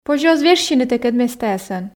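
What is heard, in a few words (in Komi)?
позьӧ оз вежсьыны тэкӧд местаясӧн, Komi, female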